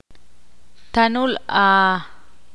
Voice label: ixil

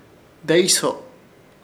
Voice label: "Deeyso", Ishir Chamacoco; parientes

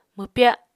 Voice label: "mwpya", Apatani

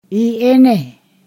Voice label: "whose", Ponosakan

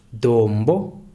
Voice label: "mountain", Kalanga

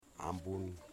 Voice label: "snake", Wamut

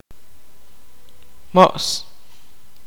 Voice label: kaqchikel; non indigenous man

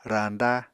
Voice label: "rañda", Apatani

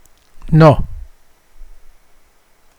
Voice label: wave the surf, Mokilese

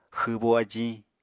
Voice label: "xwbo ajiñ", Apatani

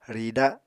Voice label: "rida", Apatani

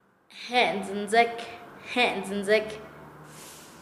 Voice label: Nasa Yuwe; filos por ambos lados; paez

